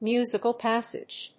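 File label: "musical passage", English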